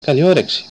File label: bon appetit, greek